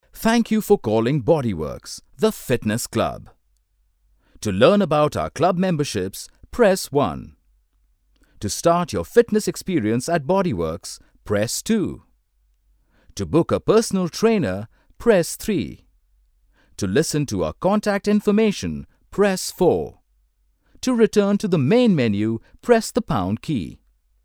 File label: IVR; Bodyworks